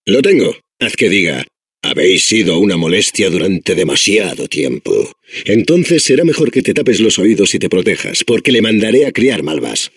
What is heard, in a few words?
Adventure core babble, es; Core voice lines